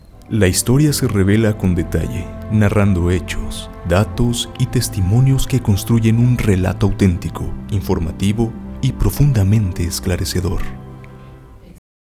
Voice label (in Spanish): Voz Documental Musicalizado